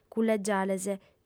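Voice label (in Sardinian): "cullegiales", female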